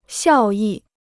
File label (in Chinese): "效益", Chinese